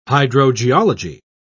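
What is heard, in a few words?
"hydrogeology", British English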